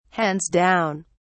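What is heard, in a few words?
"hands-down", British English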